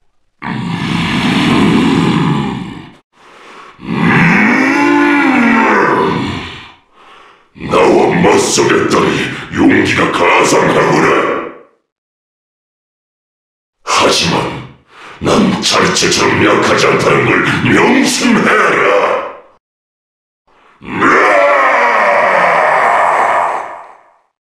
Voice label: cinematic voice; lineage2